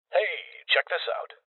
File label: Loot Spotted Male; rebel cops 2019 gamerip; Voices